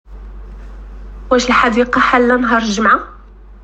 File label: Morrocan